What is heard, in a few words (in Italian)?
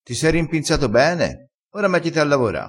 "rimpinza", crespinese